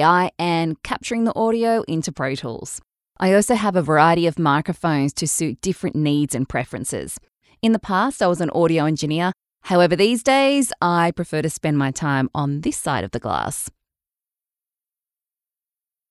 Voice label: Studio Sound Check